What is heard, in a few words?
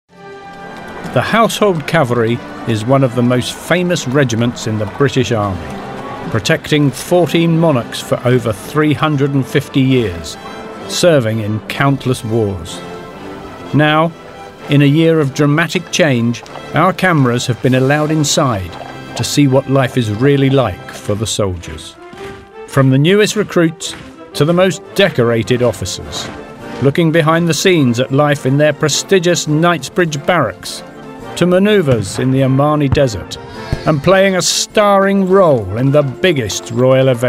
Documentary